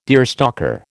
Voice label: "deerstalker", American

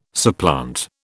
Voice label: "supplant", British

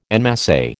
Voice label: "en masse", American

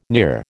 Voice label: "near", American

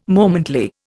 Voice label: "momently", Indian

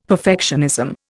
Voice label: "perfectionism", Indian; Dictionary

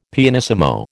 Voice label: "pianissimo", American

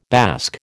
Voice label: "bask", American

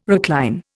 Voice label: "recline", Indian

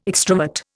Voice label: "extrovert", Indian

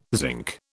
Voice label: "zinc", British

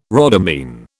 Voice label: "rhodamine", British

